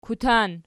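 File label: "kutan", nominative, Archi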